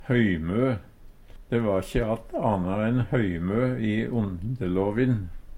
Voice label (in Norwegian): hoymo, Numedalsmål; De va'kji att ana enn høymø i ondelåvin.